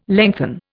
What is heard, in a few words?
"lengthen", Indian